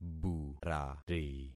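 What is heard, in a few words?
"burari", concatenated syllables; Affixing experiment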